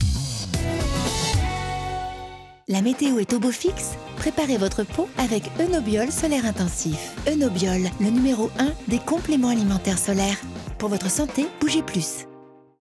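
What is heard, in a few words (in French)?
douce girly, voix off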